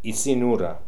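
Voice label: "Issi Noora", pronunciations; Fourth Way; Beelzebub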